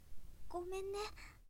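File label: boy, "gomenne"; shonen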